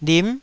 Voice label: "lim9", Taiwanese syllable